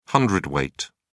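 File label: "cwt", British English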